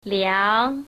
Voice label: shanghainese numbers

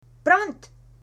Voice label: fire, afrikaans

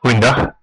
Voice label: hello, west flemish

